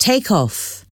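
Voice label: take off, English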